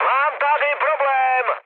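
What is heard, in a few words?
aircraft damaged, Czech, mood med; speech; War Thunder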